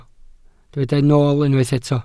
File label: "nool", Welsh